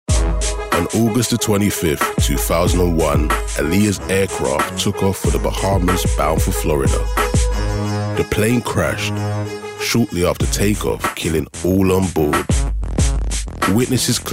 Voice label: Narration